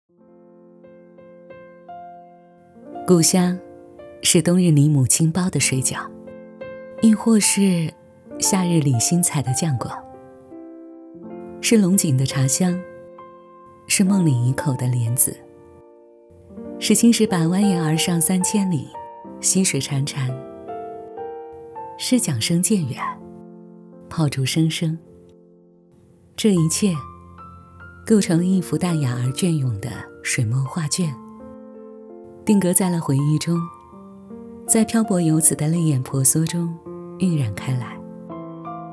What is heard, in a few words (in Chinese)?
散文讲述类